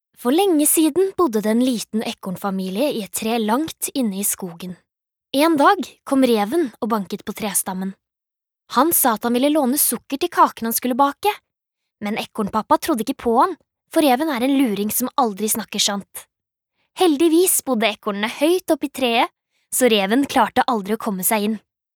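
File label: Norwegian childrens book, female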